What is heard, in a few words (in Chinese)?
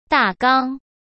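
"大纲", Mandarin Chinese; hsk; chinese flashcards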